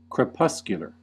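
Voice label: "crepuscular", American English; pronunciations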